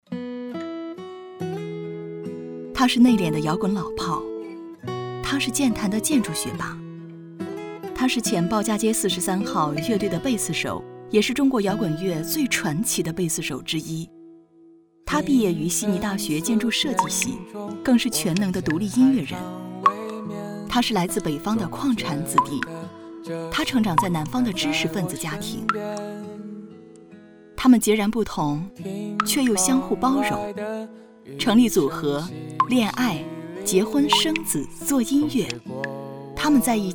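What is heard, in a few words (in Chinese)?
旁白对话磊落; 康佳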